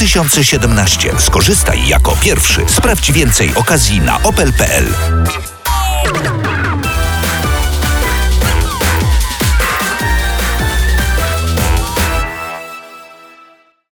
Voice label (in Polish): reklama astra